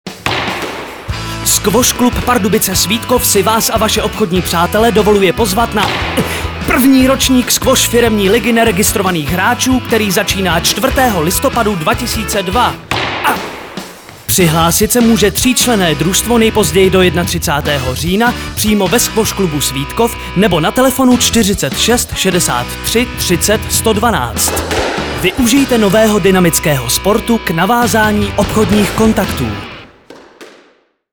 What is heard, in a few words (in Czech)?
reklama